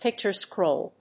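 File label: "picture scroll", English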